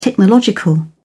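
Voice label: "technological", British English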